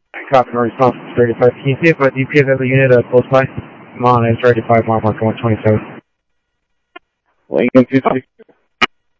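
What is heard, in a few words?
Sheriff West County, scanner audio trunk; ThompsonPeakScot; MCSO - WEST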